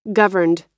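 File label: "governed", American English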